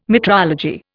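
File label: "metrology", English, Indian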